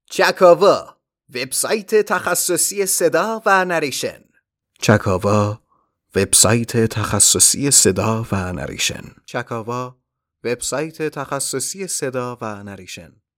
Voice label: tablighati, male